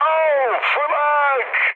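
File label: damaged aa, mood high, Turkish; speech; War Thunder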